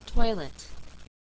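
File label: "toilet", English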